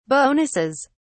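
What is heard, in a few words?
"bonuses", British English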